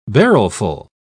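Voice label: "barrelful", British English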